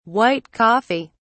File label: "white coffee", uk